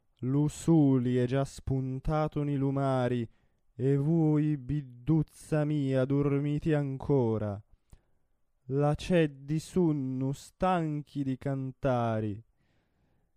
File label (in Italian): espressivo; e vui durmiti ancora